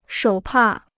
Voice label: "手帕", Chinese

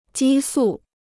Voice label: "激素", Chinese

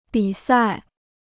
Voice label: "比赛", Chinese

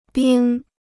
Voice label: "冰", Chinese

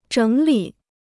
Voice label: "整理", Chinese

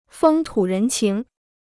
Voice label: "风土人情", Chinese

